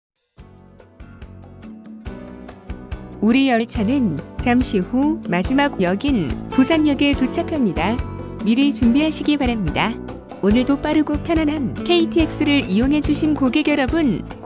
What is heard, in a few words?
approach busan, Train Announce